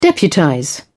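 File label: "deputize", English, British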